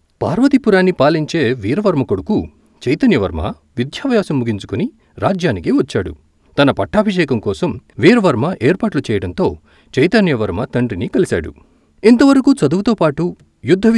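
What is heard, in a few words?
Telugu story, storytelling voice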